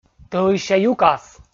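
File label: "тӧлысся юкас", Komi, male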